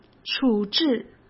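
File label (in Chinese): "处置", Mandarin Chinese; hsk; chinese-flashcards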